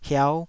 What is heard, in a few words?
"hiau5", Taiwanese syllable